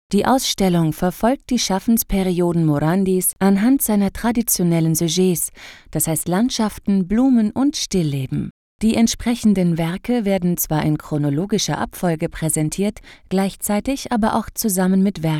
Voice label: audioguide

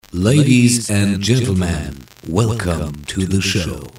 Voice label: announcement

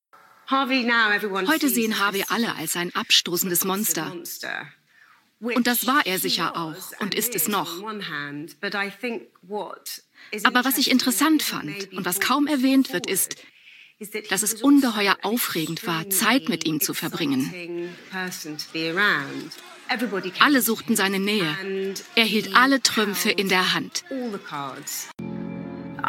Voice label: voiceover lauter

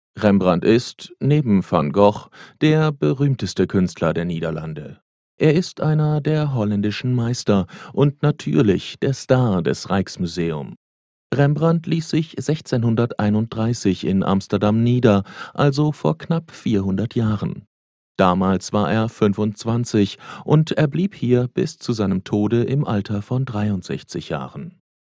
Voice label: audioguide; rijksmuseum nl

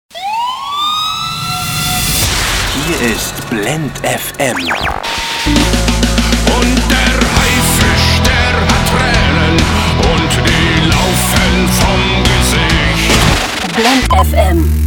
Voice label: station voice promo; Muttersprache Deutsch; Sprecher in Berlin; blend fm

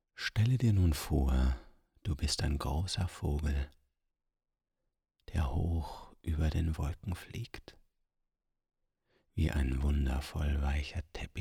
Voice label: meditation

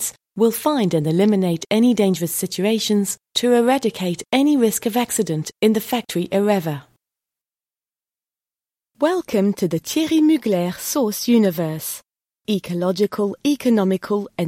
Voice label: e-learning

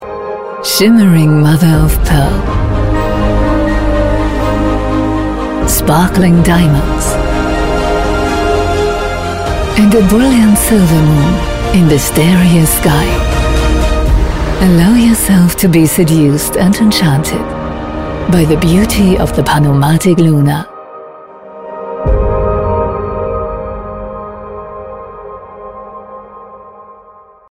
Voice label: internet spot naturally beautiful english; glashuette original; panomatic luna

